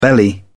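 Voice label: "belly", British English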